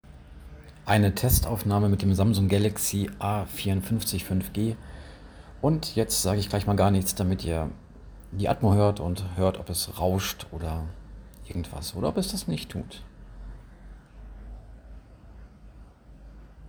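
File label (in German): Samsung Galaxy A54 Testaufnahme Audio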